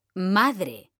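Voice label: "madre", Spanish, female; mekanta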